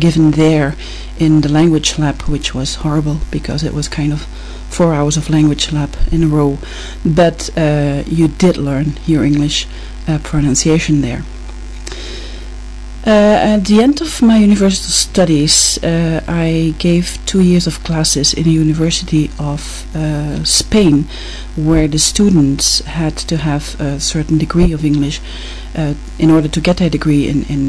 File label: belgium, dialects